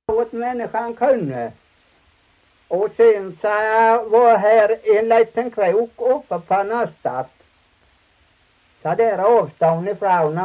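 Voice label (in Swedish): Torhamn Blekinge, dialekter; Folkminnen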